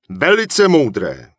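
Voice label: Human Male Official NPC Vendor, Czech; wowpreklad; czwow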